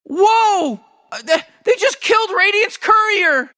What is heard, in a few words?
announcer radiant courier killed; Rick and Morty